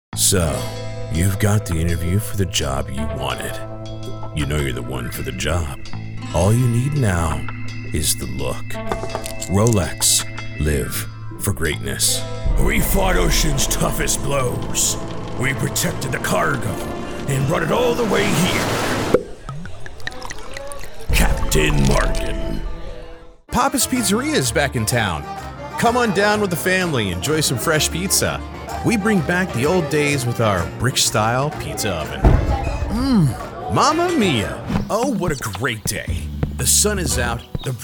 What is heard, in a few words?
Commercial